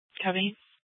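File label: Police Department Dispatch; Mesa PD; 4 Superstition Dispatch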